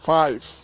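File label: "five", Nigerian Pidgin, male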